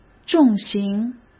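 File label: "重型", Mandarin Chinese; chinese flashcards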